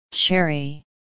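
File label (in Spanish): "cereza", Spanish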